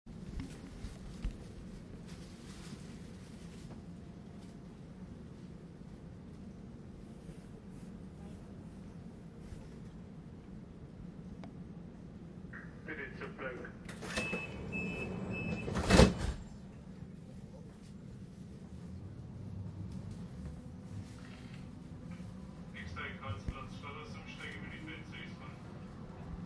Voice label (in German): u-bahn lautsprecher durchsagen; verkehrsgerausche bilderbingo